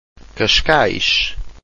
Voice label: "cascais", Portuguese